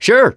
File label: "yes", survivor voice, mechanic